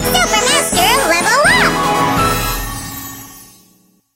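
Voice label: level up, English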